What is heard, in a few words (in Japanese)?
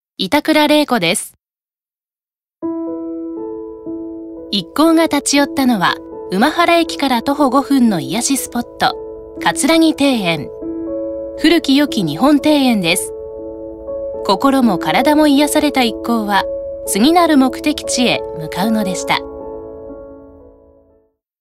ノーマル